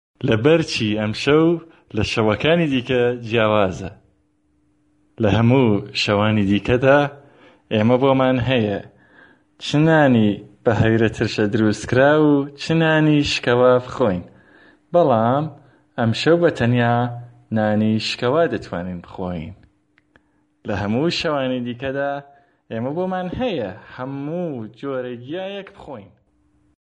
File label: sorani